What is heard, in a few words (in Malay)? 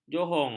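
"johong", Malay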